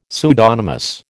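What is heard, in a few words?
"pseudonymous", American English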